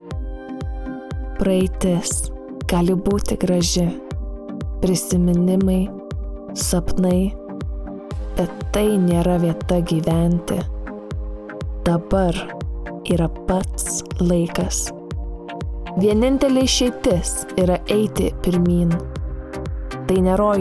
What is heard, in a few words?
Dior commercial